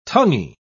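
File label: "tonguey", British English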